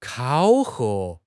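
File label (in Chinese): "考核", Chinese; HSK6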